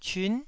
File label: "cyun9", Taiwanese syllable